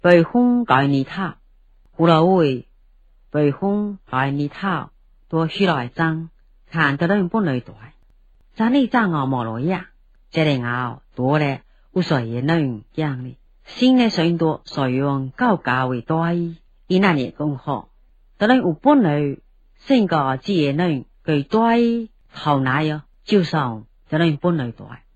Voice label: Fuzhounese

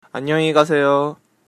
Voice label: goodbye, Korean

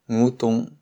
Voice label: understand, shanghainese

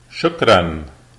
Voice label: thanks, lebanese

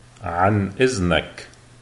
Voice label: excuse me, lebanese, arabic

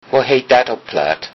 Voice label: how to say, low saxon

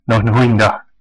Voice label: have a nice day, west flemish

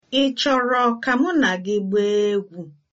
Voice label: dance, igbo

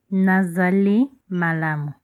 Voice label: fine, Lingala; Nazali malamu; (I'm well)

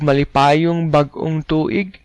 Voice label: new year, cebuano; Malipayong Bag-ong Tuig

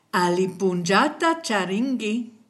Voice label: have a nice day, kichwa

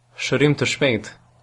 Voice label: get well soon, albanian

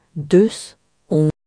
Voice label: I'm from, Breton; Deus ... on

